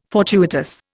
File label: "fortuitous", Indian